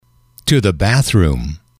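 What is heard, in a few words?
"to the bathroom", English, male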